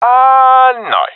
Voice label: negative, professional; vo; Counter Strike Model Pack; CS:GO

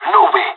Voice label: disagree, pirate; Counter Strike Model Pack; CS:GO

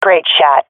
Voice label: sees enemy killed, swat fem; Counter Strike Model Pack; CS:GO

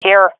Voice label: in position, swat fem; Counter Strike Model Pack; CS:GO

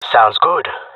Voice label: agree, jungle male; Counter Strike Model Pack; CS:GO

